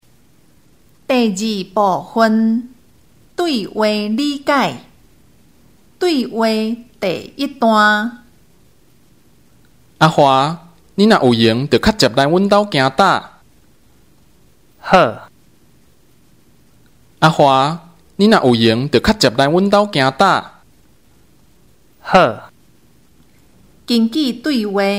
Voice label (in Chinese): 對話, 閩南語; 閩南語語言能力認證; 閩南語能力測驗題本